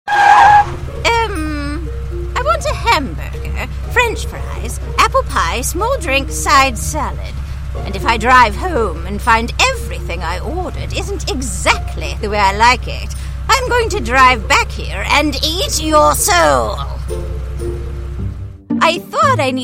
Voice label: Animation